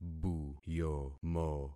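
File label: "buyomo", concatenated syllables; Affixing experiment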